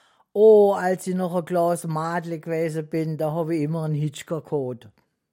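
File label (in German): Ostfränkisch, Crailsheim; ostfraenkisch sued crailsheim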